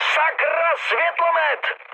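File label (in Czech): Czech searchlight, mood high; speech; War Thunder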